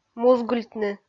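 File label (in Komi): "музгыльтны", Komi, female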